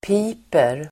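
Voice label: "piper", Swedish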